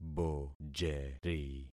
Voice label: "bojeri", concat syllables; Affixing experiment